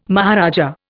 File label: "maharaja", Indian